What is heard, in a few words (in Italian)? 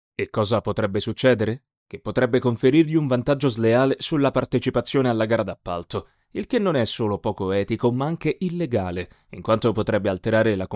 locutor italiano italian voiceover, Italian male voice talent